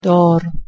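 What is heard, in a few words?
"daar" tree, Persian, male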